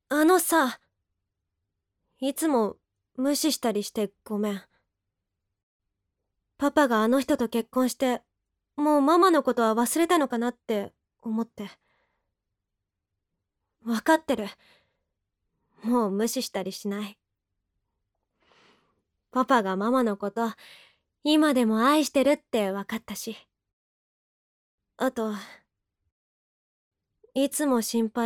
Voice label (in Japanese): 外画